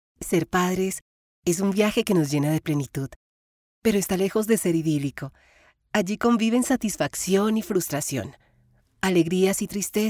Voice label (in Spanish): E Learning; Pequenin